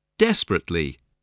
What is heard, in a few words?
"desperately", English